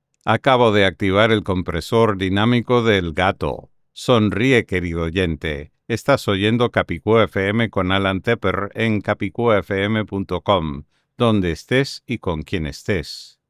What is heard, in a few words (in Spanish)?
XLR SM7B castellano